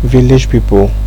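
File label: "village people", Nigerian Pidgin, male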